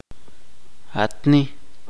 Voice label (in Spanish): "esto esta", chuj